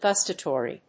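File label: "gustatory", English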